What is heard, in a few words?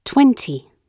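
"twenty", British English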